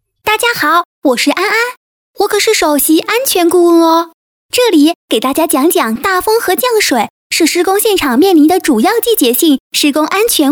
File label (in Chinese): 女 动画 安全动画; 国语女声配音; 专题广告